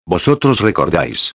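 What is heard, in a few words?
"recordais", Spanish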